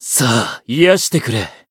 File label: Voice heal, jp